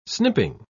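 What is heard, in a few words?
"snipping", British English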